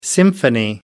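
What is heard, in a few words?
"symphony", English, British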